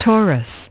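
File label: "taurus", English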